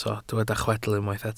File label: "chwedl", Welsh